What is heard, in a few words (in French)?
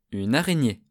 araignee